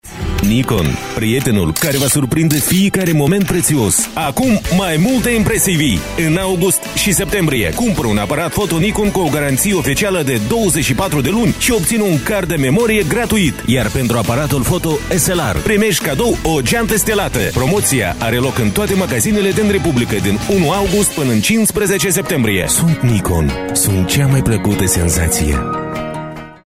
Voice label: Moldavian male

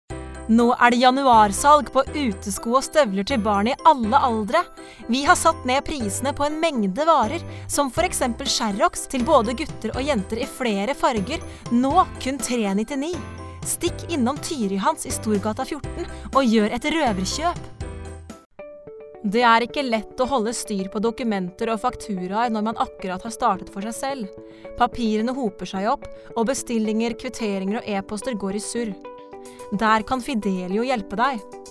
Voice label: Norwegian female

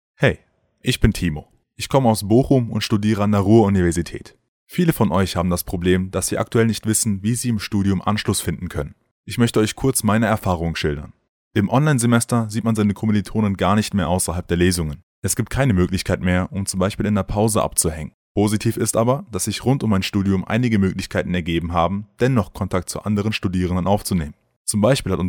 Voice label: German male

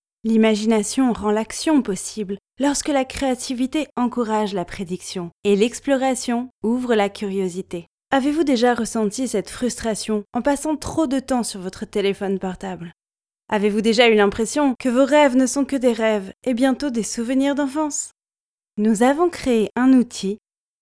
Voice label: French

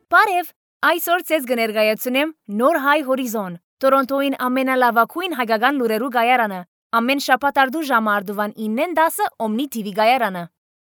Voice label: Armenian

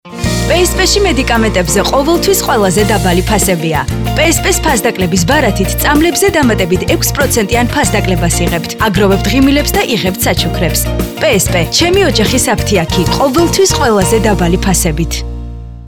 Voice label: Georgian female

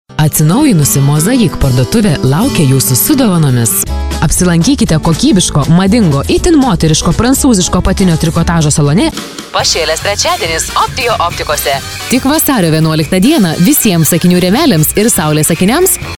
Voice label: Lithuanian female

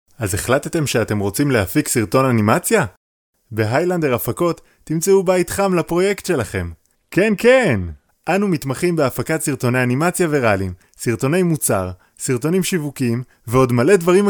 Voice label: Hebrew

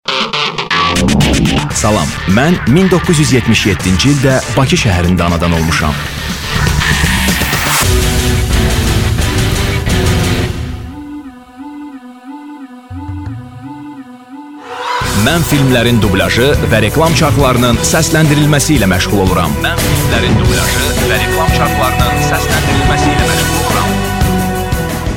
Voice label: Azerbaijan male

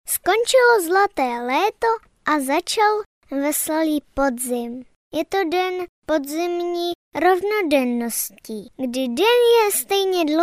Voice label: Czech child male

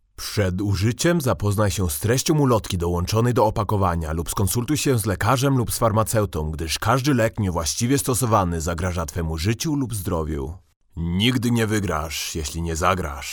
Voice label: Polish male

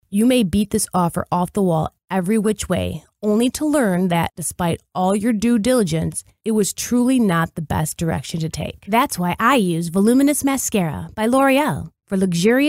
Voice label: English - American

